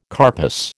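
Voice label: "carpus", American English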